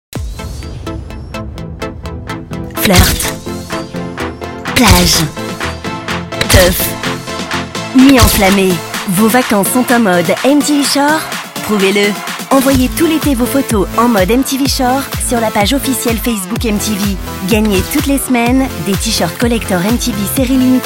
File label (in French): Bande Annonce jeu; Mtv Shore